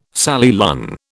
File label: "Sally Lunn", British